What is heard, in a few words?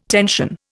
"tension", Indian